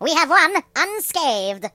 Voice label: "Flawless", British; hedgewars

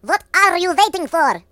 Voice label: Comeonthen, Russian; hedgewars

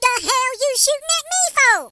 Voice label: HillBilly, Same team

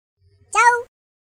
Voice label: Byebye, voices es; hedgewars; Default es

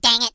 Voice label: Jump, Hill Billy; hedgewars

